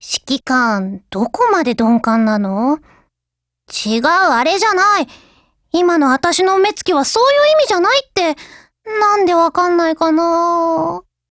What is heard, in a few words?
DIALOGUE JP